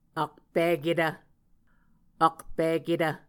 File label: "akpiketv", Muscogee; Mvskoke Words with Voicing Consonants; Martin, Jack B., and Margaret McKane Mauldin. 2023. A Dictionary of Creek/Muskogee; SIL International